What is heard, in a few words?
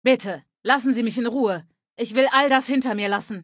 Female adult default greeting; Fallout 3: Audiodialoge